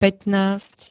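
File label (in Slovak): "pätnásť", Slovak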